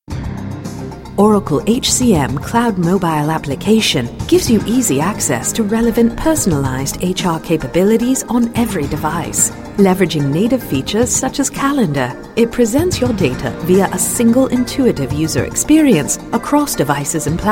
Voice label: Female Brittish